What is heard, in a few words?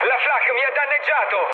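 Italian damaged aa mood med, speech; War Thunder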